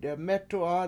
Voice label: "demEtu7a", Washo; In The Cold Water